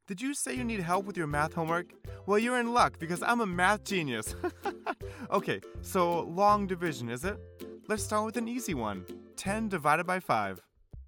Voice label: Talking Toy w music

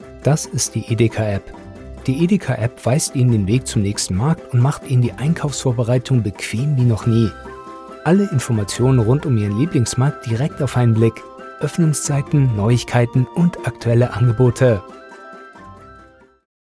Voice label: Ad for Online App - Friendly Genuine Informative

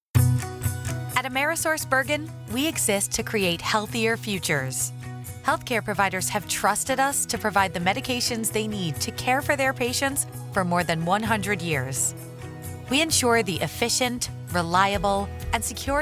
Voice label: Corporate Narration

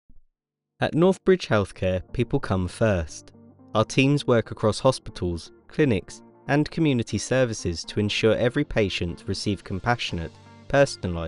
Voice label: Corporate

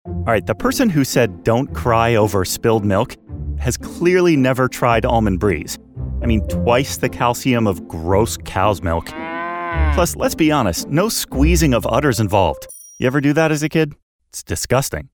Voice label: Conversational Guy Next Door; ALMOND BREEZE

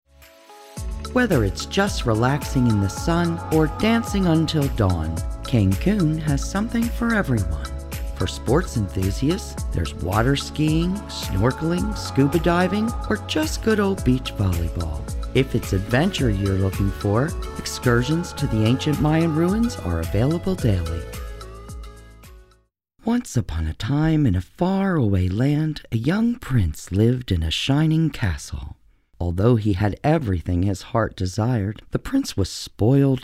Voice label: Narrative

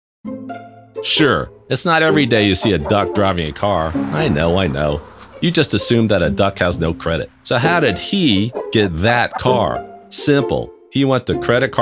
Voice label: EXPLAINER

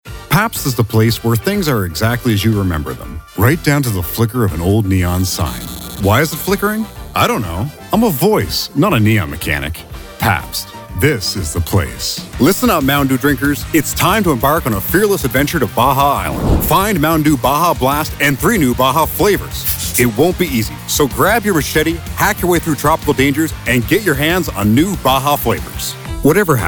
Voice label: vo commercial demo

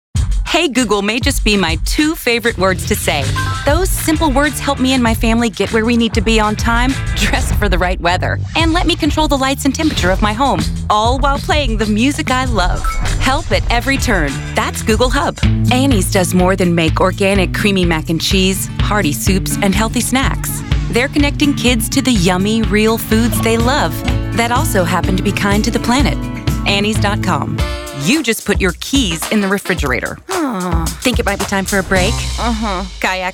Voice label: commercials

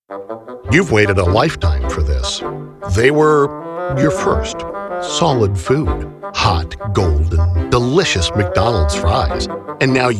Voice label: E LEARNING

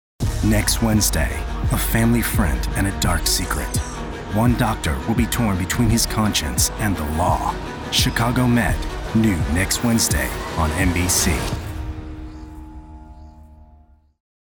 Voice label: Promo; ChicagoMED

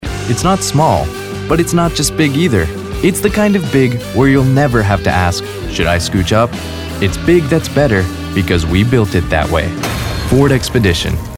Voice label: Soft Relatable Delivery

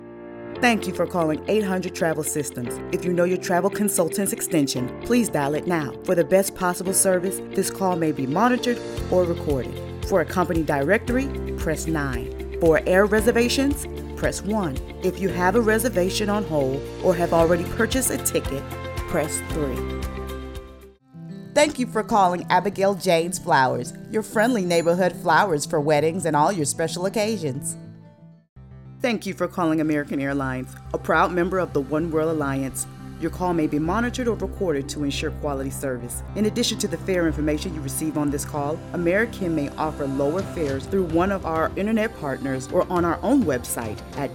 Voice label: VO Telephony

